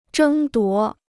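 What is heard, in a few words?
"争夺", Chinese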